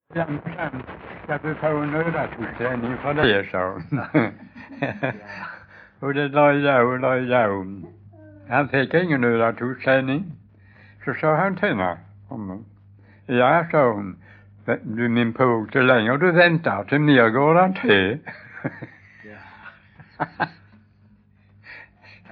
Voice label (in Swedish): Stehag Västra Sallerup SK, dialekter; Folkminnen